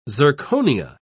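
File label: "zirconia", British English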